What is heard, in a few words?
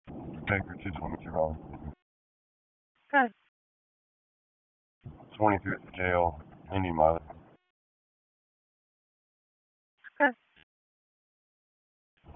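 Police District Dispatch; Raleigh Police Northeast District Disp; wake; p25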